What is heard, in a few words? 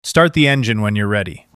Male Start Engine